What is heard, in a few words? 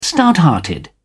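"stouthearted", British English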